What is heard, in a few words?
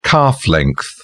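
"calf length", English, British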